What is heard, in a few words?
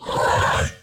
Zombie